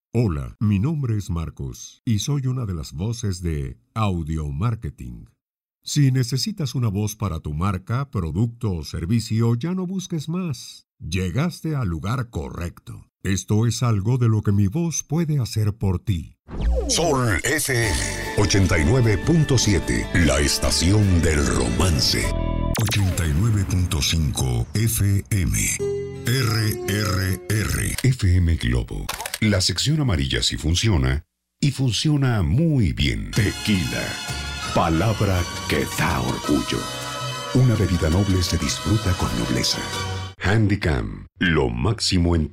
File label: Mexico; Locutores; Audiomarketing